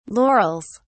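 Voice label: "laurels", British English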